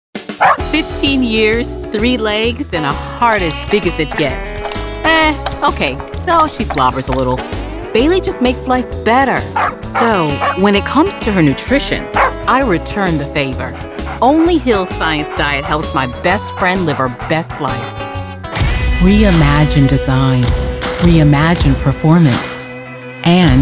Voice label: Commercial; AntlandProductions